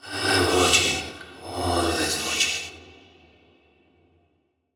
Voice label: Indistinct Voice I am watching always watching